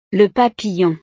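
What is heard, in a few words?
"le papillon", French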